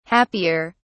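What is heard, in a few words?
"happier", British English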